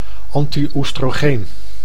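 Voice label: "anti-oestrogeen", Dutch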